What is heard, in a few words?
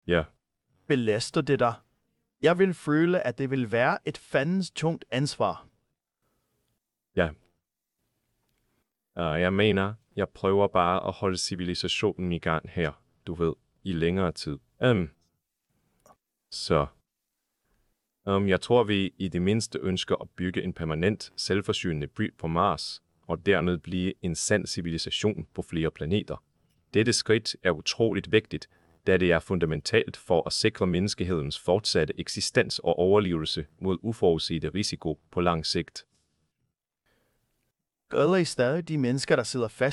danish; Subformer